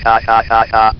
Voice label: HAHAHAHU, votrax; VIDEO GAMES